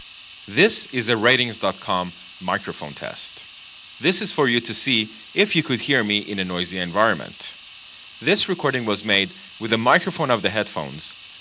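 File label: clearcast ai noise cancelling noise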